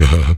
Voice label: VOX FX; E-Lab; X Static Goldmine 2; akai